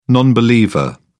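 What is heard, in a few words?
"non believer", British English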